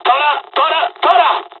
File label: japanese first wave, dialogs italian; War Thunder